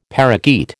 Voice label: "parakeet", American